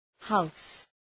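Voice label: "house", English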